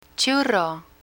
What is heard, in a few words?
"churro", Spanish; spanish fruits; learn spanish